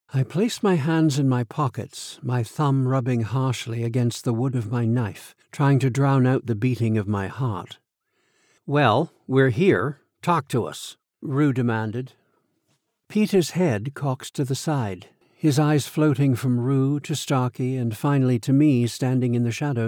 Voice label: Fiction Crime 1st POV Dialogue